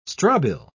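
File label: "strobile", British English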